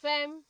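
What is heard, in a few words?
"fem", Nigerian Pidgin, female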